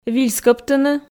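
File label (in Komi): "вильскӧбтыны", Komi, female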